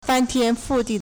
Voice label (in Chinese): "fan1tian1fu4di4", Chinese